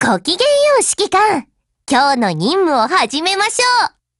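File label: HELLO, Japanese; GF Alarm; USAS12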